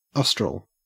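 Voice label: "austral", Australian English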